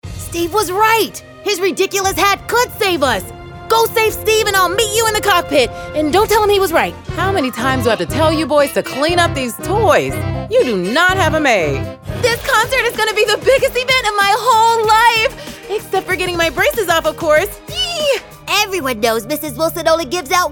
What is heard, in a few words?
Animation